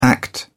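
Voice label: "act out", British English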